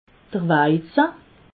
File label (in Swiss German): "weize", alsace